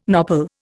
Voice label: "nopal", Indian

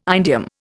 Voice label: "indium", Indian

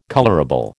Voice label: "colourable", American

